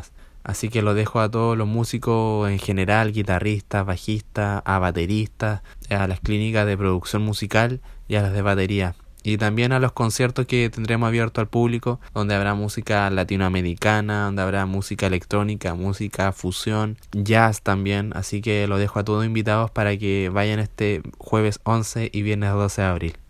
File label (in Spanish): CUÑA DRUMFEST